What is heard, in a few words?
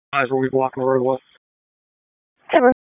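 Raleigh Police Downtown District Dispatch, p25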